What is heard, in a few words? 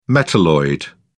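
"metalloid", English, British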